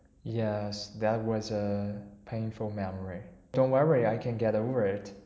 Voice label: example sad; emotion-diarization

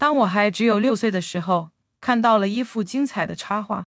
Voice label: zh cn sample

